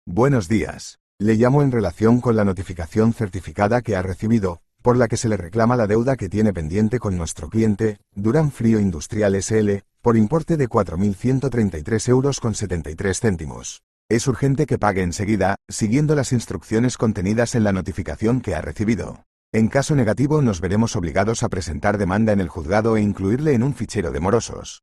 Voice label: audio call